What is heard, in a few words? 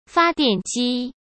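"发电机", Mandarin Chinese; chinese-flashcards; hsk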